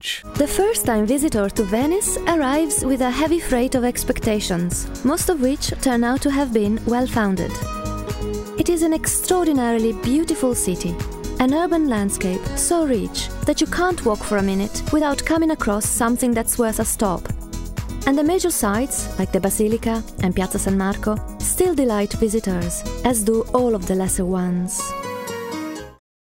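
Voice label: English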